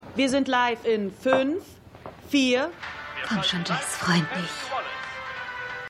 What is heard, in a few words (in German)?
Kamerafrau TV Sprecher; Jessica Jones